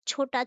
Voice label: Female